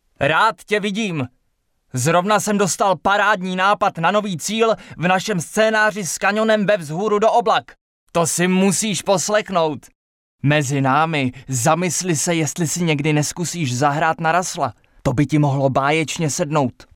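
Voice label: Male Czech drama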